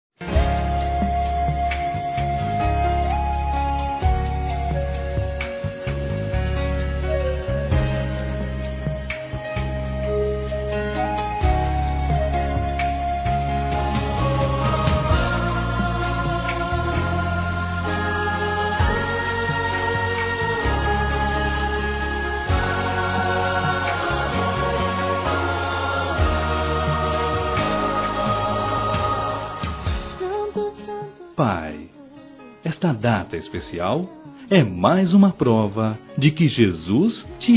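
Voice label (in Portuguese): evangélico masculino, telemensagens dia dos pais; Telemensagens 2017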